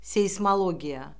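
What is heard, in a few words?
"seysmologiya", Azerbaijani; Orfoqrafiya Lüğəti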